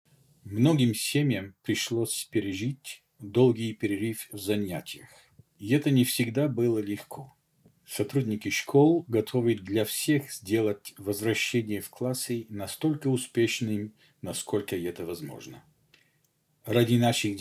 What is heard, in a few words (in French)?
Message RUSSE